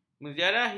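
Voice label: "menziarahi", Malay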